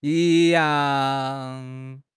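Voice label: "yi1 yang4", Chinese; HSK; HSK3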